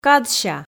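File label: "кадся", Komi, female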